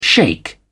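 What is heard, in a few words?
"shake", British English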